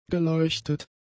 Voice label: "geleuchtet", German; adjectives